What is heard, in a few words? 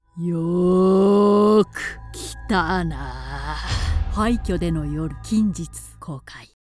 Movie Trailer Horror